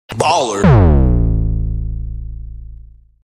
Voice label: Baller with boom